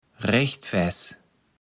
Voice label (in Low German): "Rechtfäß", Platt